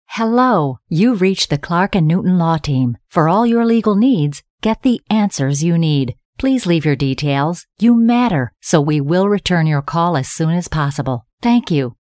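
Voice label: english american female; dictor; dictor-sounds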